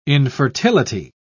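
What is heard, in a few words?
"infertility", British English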